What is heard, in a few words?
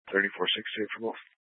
Police 1 Dispatch